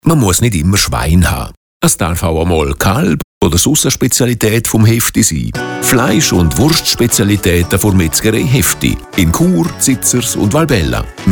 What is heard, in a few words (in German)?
Neuer Werbespot METZGEREI; HEFTI